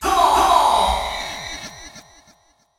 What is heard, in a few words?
VOICEFX TEKNO 140, Techno, Voice